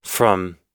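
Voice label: "from", American English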